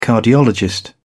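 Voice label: "cardiologist", British English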